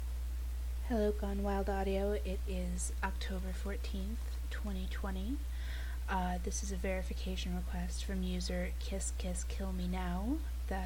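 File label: Female Verification Request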